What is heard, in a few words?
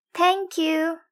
speech, weather